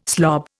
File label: "slob", Indian